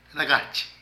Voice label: Inmaduro Zazon, Zapoteco de Petapa; Vocabulary

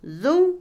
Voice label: cadáver, Otomí, mujer; Cuerpo; INALI

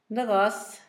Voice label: negro, Zapoteco, Asunción Ocotlan; INALI; Haspelmath

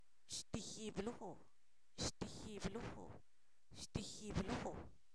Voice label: uno solo, Zapoteco, san miguel albarradas; numeros

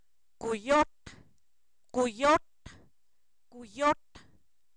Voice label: coyote, Zapoteco, san miguel albarradas; animales; INALI

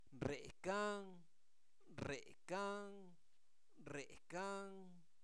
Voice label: Zapoteco Albarradas, santo domingo albarradas; INALI; verbos; se quema

